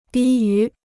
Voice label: "低于", Chinese

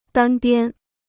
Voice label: "单边", Chinese

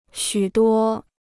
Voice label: "许多", Chinese

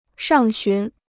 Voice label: "上旬", Chinese

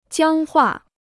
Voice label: "僵化", Chinese

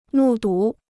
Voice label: "目睹", Chinese

